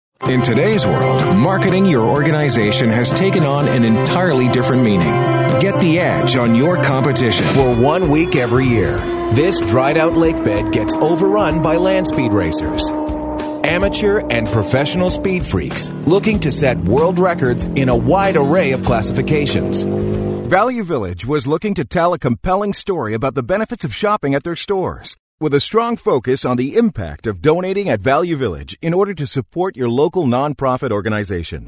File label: Narration; TaDa